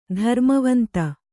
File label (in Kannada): "ಧರ್ಮವಂತ", Kannada